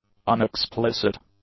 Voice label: "unexplicit", english; adjectives